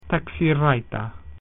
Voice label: latin taxi